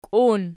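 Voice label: "kiun" nominative, Archi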